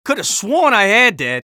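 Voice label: scout taunt rps lose, male voice; taunts; tf2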